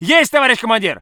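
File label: voice soviet infantry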